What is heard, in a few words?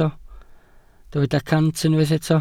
"cant", Welsh